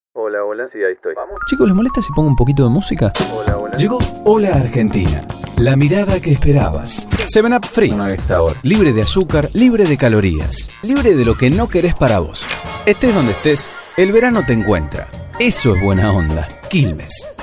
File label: locutor acting